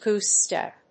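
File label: "goose step", English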